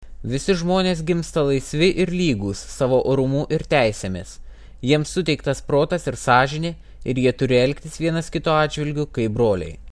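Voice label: Lithuanian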